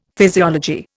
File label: "physiology", Indian; Dictionary